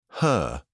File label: "her", British English